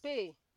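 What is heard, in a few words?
"pay", Nigerian Pidgin, female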